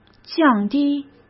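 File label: "降低", Mandarin Chinese; chinese-flashcards; hsk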